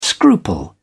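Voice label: "scruple", English, British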